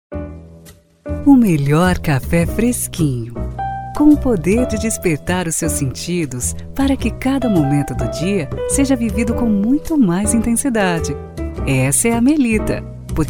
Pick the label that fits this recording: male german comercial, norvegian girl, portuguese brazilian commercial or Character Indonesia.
portuguese brazilian commercial